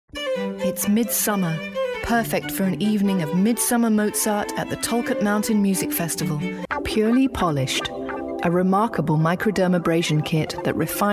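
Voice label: British Voice Female, Measured, Educational; Commercial Voiceover, TV and Radio, eLearning, Narration, Corporate, International Voiceover, Documentary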